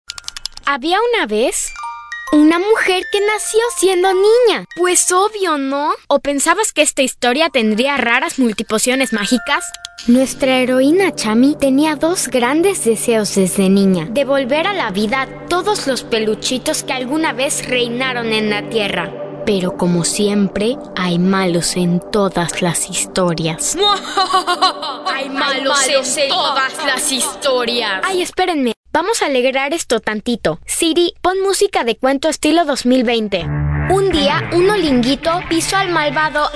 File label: spanish mexican commercial